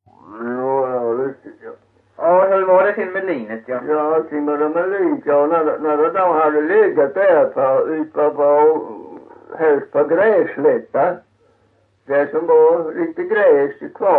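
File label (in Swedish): Kyrkhult Blekinge, dialekter; Folkminnen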